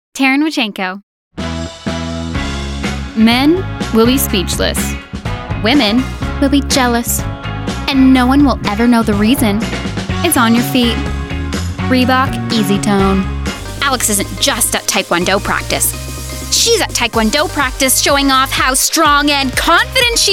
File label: commercial